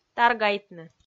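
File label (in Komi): "таргайтны", Komi, female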